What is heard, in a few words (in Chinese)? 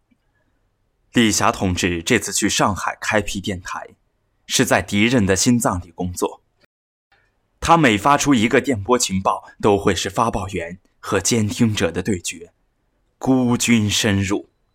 画外音; 永不消逝的电波